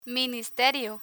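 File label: "ministerio" Spanish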